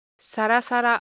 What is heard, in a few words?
Japanese Texture, Ideo Phones